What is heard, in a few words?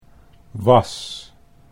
"was", Dutch